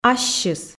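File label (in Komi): "ассьыс", Komi, female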